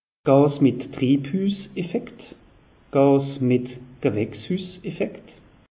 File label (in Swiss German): "gas", alsace; meteo